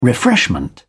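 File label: "refreshment", English, uk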